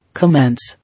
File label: "Commence", English